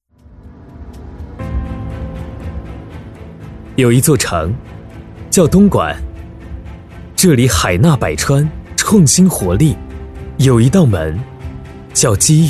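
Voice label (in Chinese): 朝气自信宣传片; 东莞人才计划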